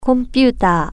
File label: computer, japanese